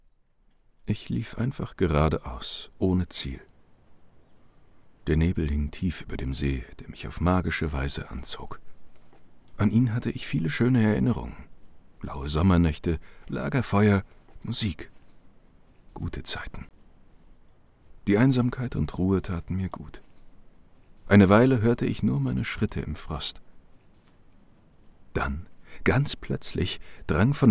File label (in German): Hoerbuch Atmosphaere ruhig, stimmen maennlich